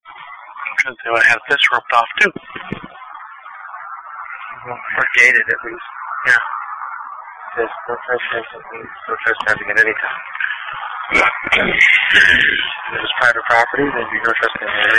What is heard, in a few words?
male voice with noise reduction